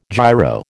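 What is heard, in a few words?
"giro", American English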